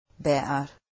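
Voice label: "better", English, Scots North East Traditional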